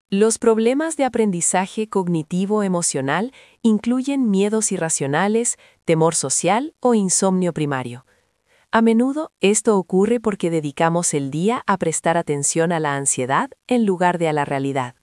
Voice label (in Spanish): locucion